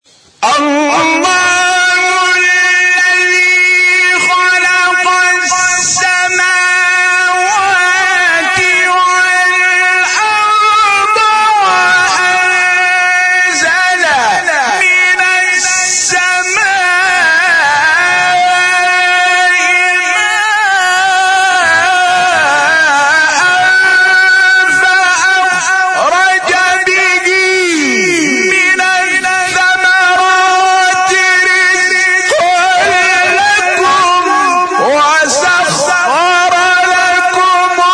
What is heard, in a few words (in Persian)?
تلاوت زیبای آیه 32 سوره ابراهیم